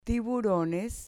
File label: "tiburones", Spanish